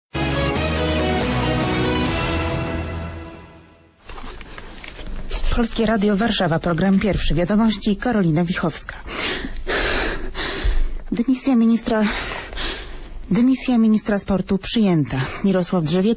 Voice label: polish radio news